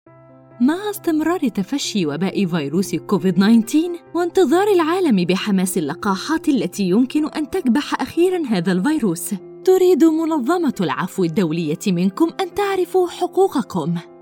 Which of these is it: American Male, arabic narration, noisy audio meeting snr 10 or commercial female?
arabic narration